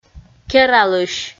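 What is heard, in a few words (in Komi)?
"кералысь", Komi, female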